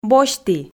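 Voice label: "босьті", Komi, female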